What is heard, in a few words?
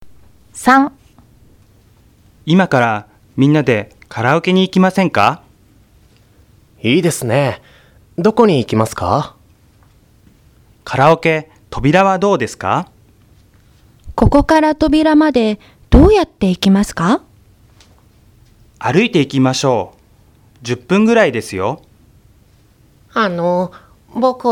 Conversation; tobira